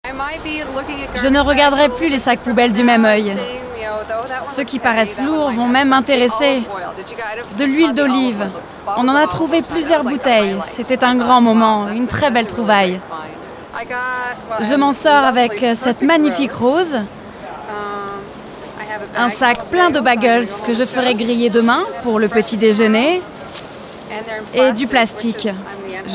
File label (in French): Voice Over Energique